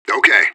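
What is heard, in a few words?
radio bot response positive; fbihrt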